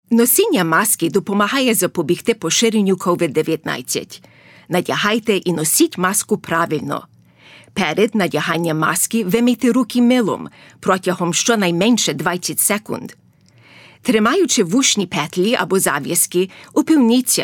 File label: PSA Ukrainian How to Wear a Mask